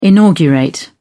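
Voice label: "inaugurate", British English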